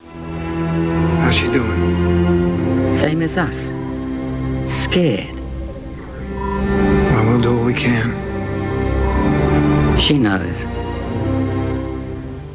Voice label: scared; farscape